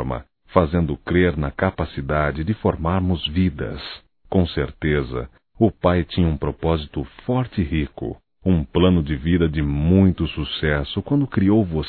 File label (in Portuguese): Aniversário Filho Masculino; Aniversarios; Telemensagens 2017